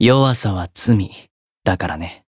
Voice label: growth, jp; dunarith